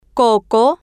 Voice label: "coco", spanish